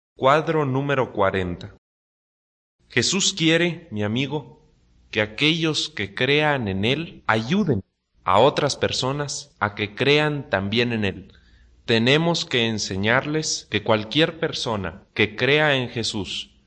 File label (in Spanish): good news spanish mexico